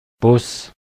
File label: "bus", de; tdeedu; klettres